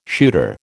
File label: "shooter", American